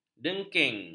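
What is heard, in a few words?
"dengking", Malay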